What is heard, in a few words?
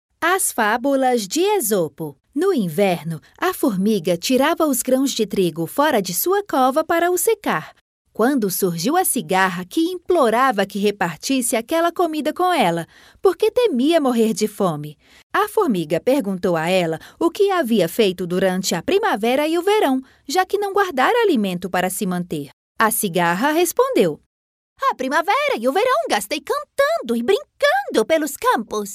brazilian voice audiobook